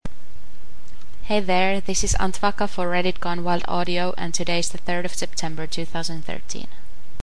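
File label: Verification, Female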